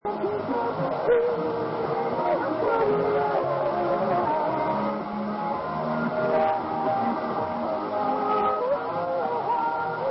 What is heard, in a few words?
SIBC 5020kHz ID